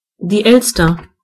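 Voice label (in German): "magpie", German; gcompris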